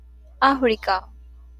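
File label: "Africa", Japanese, female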